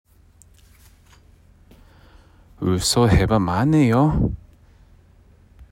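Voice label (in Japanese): 津軽方言 例文, 嘘 へば まね よ; （嘘をついてはだめだよ）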